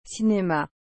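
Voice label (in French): "cinema", French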